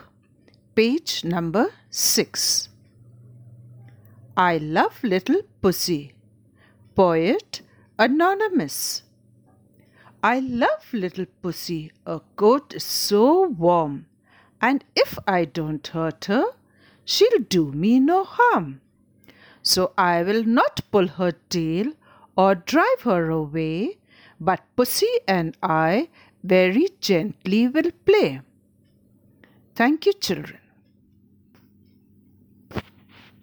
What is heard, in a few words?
Recitation